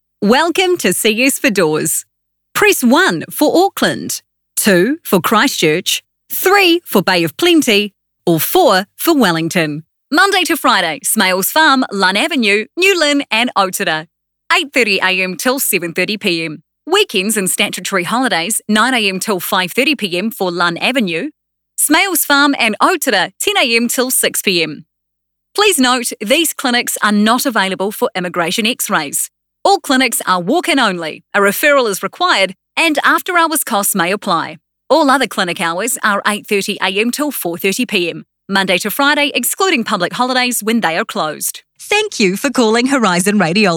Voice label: NZ PHONE